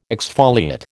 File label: "exfoliate", American